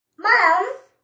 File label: Toddlr Sys Mom, A toddler says Mom.; Talking; Voice